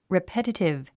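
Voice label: "repetitive", English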